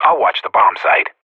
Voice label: radio bot guarding, professional; player vo